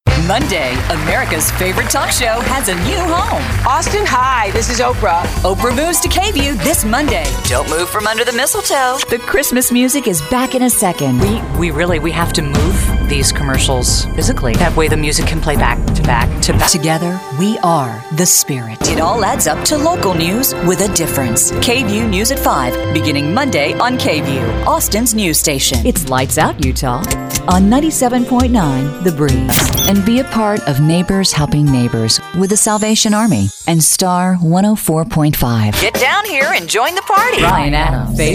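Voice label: English US General; GoLocalise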